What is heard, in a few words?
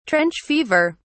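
"trench fever", British English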